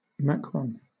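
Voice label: "macron", British English; pronunciations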